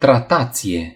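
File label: "treat", Romanian; gcompris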